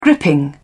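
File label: "gripping", British English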